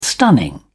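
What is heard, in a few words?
"stunning", English, uk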